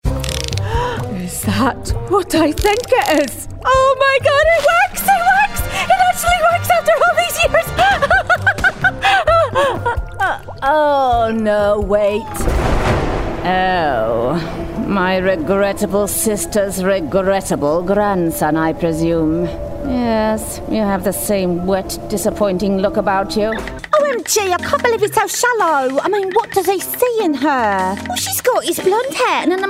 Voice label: Animation Character